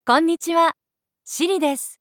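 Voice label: Japanese, Japan; iOS Sounds; sakura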